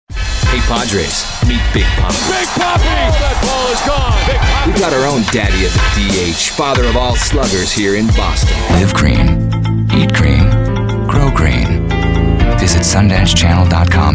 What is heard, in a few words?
promo